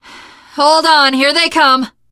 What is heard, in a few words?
incoming, teengirl survivor voice; source engine; left 4 dead 2